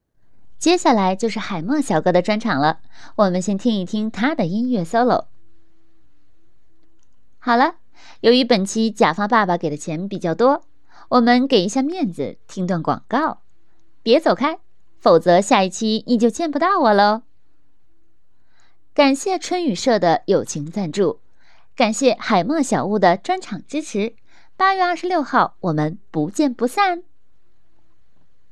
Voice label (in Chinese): 主播 配音 女声